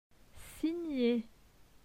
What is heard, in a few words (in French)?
"signer", francais; phonetique; les consonnes